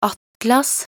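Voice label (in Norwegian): "atlas", Norwegian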